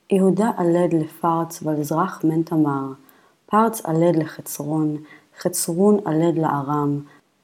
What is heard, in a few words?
Matthew Reading Practice, Aramaic